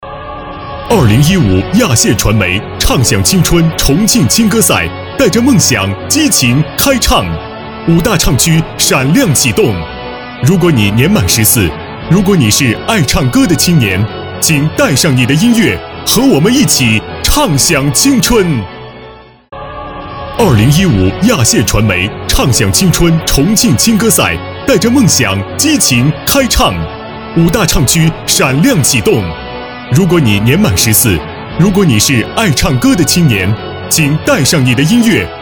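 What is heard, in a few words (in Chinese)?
国语 男声 广告（大气 厚重） 激情激昂 大气浑厚磁性 科技感 积极向上; 广告-青歌赛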